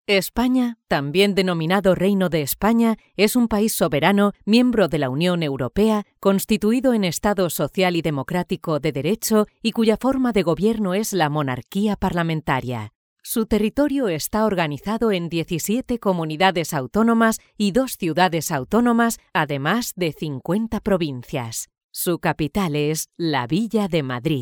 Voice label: female spanish castilian